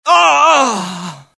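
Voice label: death, dialogue generic Italian; mohaa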